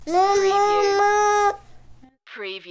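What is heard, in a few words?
Toddler Moo Moo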